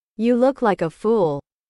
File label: english